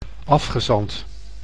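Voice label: "afgezant", Dutch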